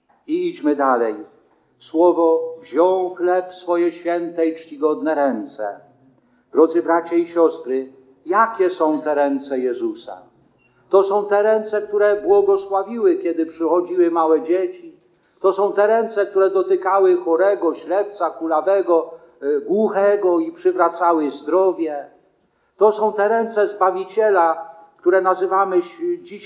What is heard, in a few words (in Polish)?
Kazanie; Wielki Czwartek; pamiatka ustanowienia eucharystii i kaplanstwa